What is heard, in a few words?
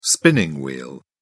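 "spinning wheel", British English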